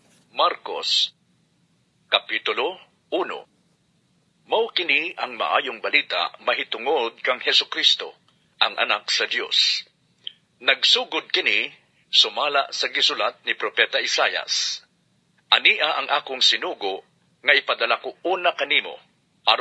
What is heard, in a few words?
cebuano